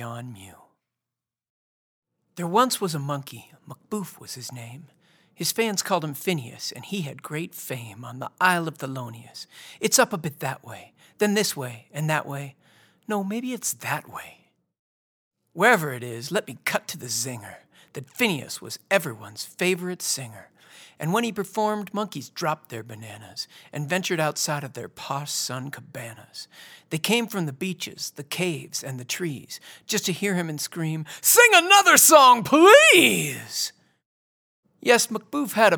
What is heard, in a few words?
Ballad Book Narration